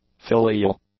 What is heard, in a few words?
"filial", english